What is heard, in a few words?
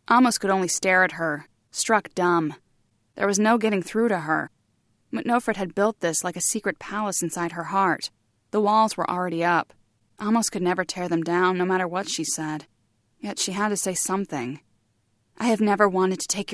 audiobook